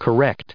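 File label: "correct", English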